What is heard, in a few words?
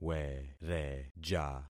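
"wereja", concatenated syllables; Affixing experiment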